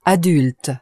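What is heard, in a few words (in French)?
"adulte" French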